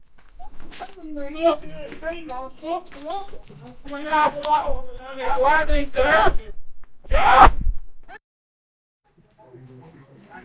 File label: a guy and a girl, angry